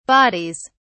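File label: "bodies", British English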